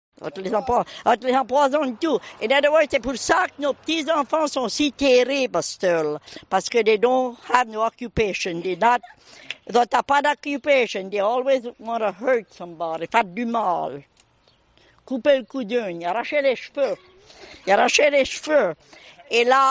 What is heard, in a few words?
New Orleans Louisiana Cajun African American Female Age 76